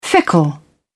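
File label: "fickle", English, British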